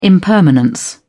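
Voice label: "impermanent", British English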